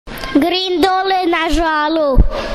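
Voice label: "doli", Croatian dialect; doli dolje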